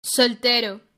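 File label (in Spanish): "soltero", Spanish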